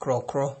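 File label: "crawcraw", Nigerian Pidgin, male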